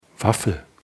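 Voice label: "Waffel", German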